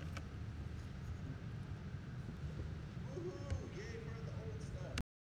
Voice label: Male Voice; NYCC 2013